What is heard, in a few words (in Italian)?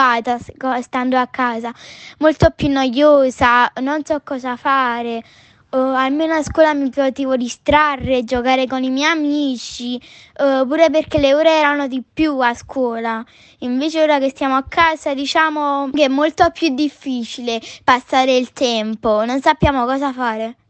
Napoli, campania, 9 anni